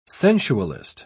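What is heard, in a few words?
"sensualist", British English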